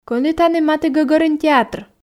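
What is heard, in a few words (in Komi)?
кӧні тані матігӧгӧрын театр, Komi, female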